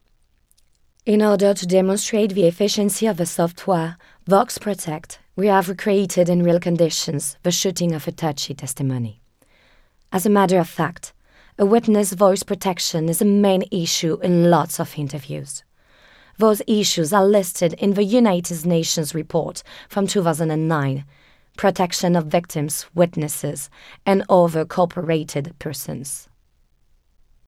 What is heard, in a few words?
EN WITNESS ORIGINAL; voxprotect